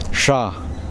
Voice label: leaf, Kosraean